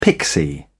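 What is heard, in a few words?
"pixie", British English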